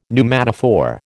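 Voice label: "pneumatophore", American English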